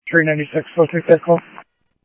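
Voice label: Sheriff West County, scanner audio trunk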